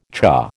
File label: "cha", American